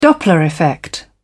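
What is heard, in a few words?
"doppler effect", British English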